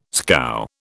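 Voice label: "scow", British English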